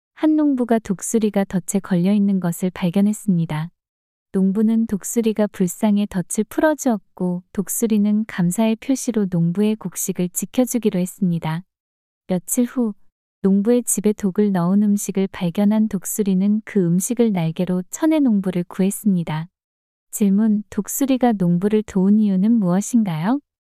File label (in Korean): 이야기 여자; 독수리와 농부